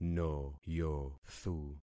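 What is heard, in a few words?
"noyothu", concatenated syllables; Affixing experiment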